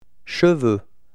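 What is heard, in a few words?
"chevaux", French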